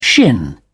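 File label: "shin", British English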